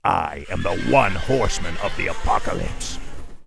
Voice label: Death Knight Angry; warcraft3